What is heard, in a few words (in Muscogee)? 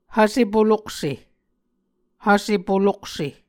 "hvse polokse", Muscogee